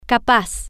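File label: "ca-paz", Spanish, female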